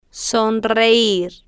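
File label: "sonreir", slow, spanish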